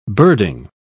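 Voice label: "birding", British English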